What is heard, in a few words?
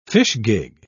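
"fishgig", British English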